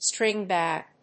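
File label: "string bag", English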